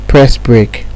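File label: "press brake", Nigerian Pidgin, male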